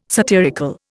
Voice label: "satirical", Indian

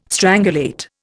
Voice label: "strangulate", Indian

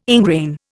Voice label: "ingrain", Indian

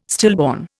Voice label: "stillborn", Indian

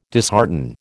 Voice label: "dishearten", American

